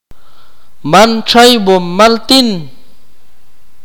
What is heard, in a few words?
"kingfishers", Akateko